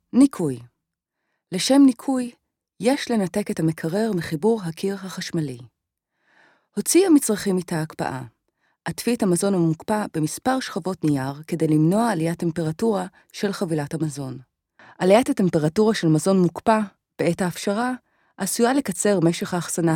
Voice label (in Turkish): Ibranice Kadin; seslendirme